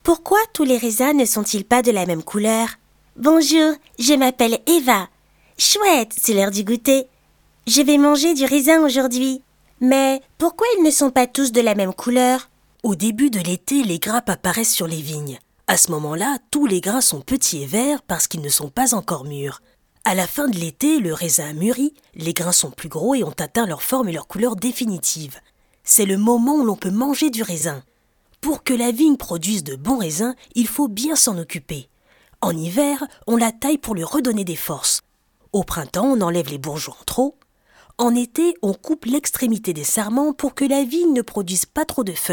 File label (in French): Modules pédagogiques extrait 5 voix 1 adulte; Les Vignes et Climats de Bourgogne; viticulteur